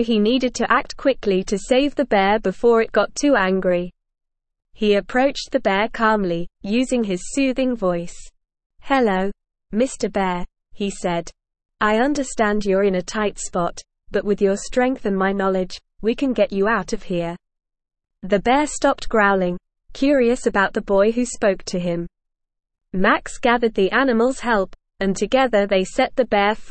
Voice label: ESL Short Stories for Kids Advanced NORMAL Reading The Boy Who Could Talk to Animals